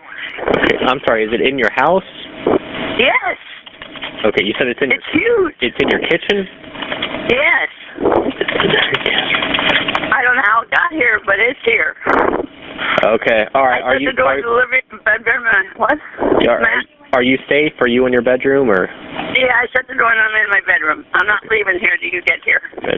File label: alligator 911 call; clearwater